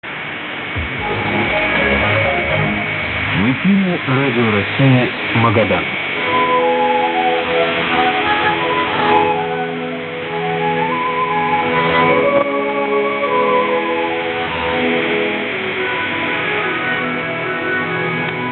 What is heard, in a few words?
Radio Rossii Magadan 5940kHz; Радио России - Магадан [ГТРК Магадан]; Русский